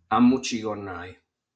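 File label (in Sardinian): "Amucigonai", Sardinian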